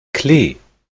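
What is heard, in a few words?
"klei", Proto-Indo-European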